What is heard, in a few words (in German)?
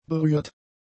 "berührt", German; adjectives